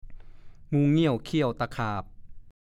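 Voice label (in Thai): "งูเงี่ยวเขี่ยวตะขาบ" ภาษาโคราช; (สำนวน) อรสพิษทั้งหลาย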